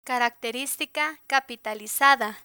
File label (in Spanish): "caracteristica capitalizada", Spanish